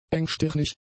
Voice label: "engstirnig", German; adjectives